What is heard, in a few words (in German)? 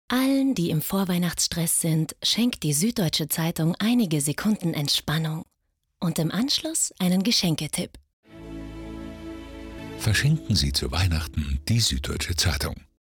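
süddeutsche zeitung werbung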